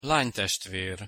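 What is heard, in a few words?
"lanytestver", Hungarian pronunciation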